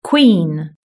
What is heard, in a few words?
"queen", British English